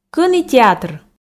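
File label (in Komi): кӧні театр, Komi, female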